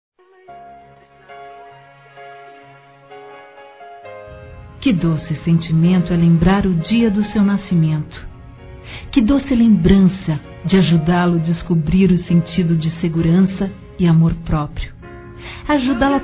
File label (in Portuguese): Filho Feminino; Aniversários; Aniv Familiar